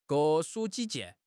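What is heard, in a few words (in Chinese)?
"各抒己见", Chinese; HSK6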